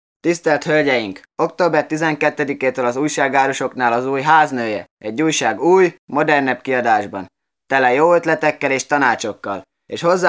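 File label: M HU commercial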